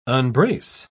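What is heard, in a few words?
"unbrace", British English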